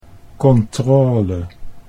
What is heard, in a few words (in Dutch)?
"controle", Dutch